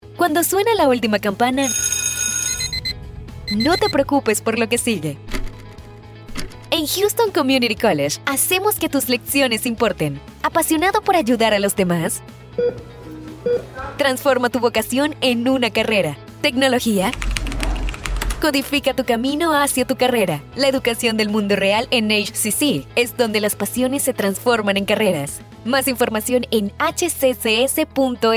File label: Spanish; metro